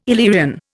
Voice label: "Illyrian", Indian

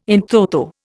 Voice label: "in toto", Indian

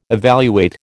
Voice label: "evaluate", American

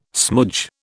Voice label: "smoodge", British

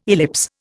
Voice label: "ellipse", Indian

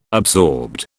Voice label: "absorbed", British

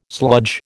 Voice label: "sludge", American

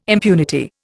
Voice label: "impunity", Indian

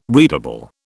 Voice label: "readable", British

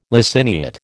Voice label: "laciniate", American

